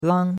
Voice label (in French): "lang1", chinois; Cours-de-Chinois